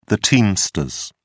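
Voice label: "teamsters", British English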